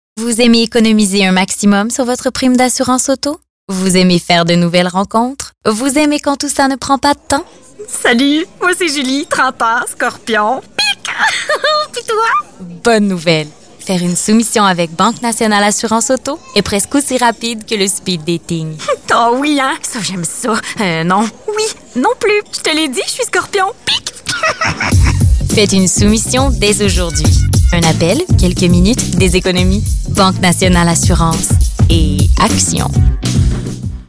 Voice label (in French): 2 voix annonceur humour fr qc naturel promo charmante; bnc